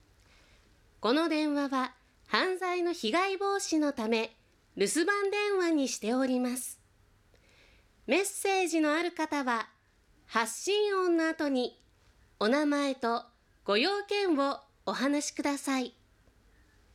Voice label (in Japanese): rusu narration sukosidakeyukkuri; 少しだけゆっくり話すバージョン; 留守番電話